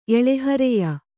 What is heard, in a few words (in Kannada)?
"ಎಳೆಹರೆಯ", Kannada